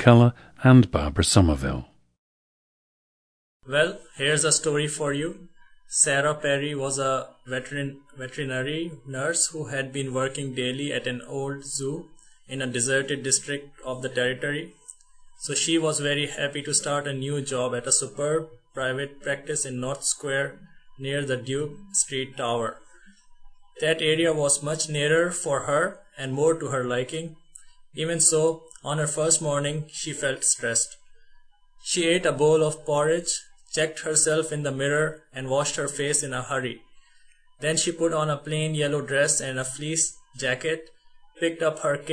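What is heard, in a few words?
pakistan, dialects of English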